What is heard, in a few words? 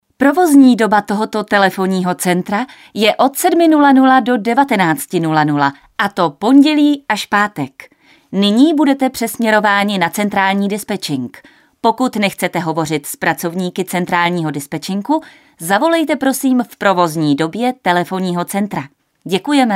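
Female Czech IVR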